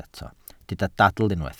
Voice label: "dadl" Welsh

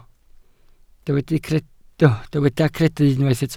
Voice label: "credu" Welsh

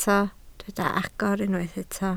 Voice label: "agor", Welsh

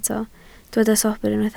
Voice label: "sobr", Welsh

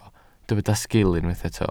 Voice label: "sgil", Welsh

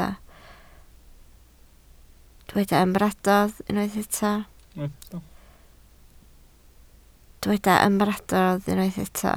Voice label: "ymadrodd", Welsh

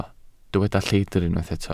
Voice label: "lleidr" Welsh

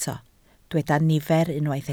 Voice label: "nifer", Welsh

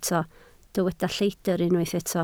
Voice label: "lledr", Welsh

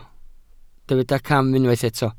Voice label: "cam", Welsh

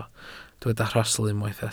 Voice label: "rhastl" Welsh

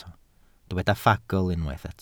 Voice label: "ffagl" Welsh

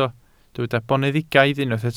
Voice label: "boneddigaidd", Welsh

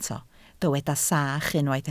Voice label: "sach", Welsh

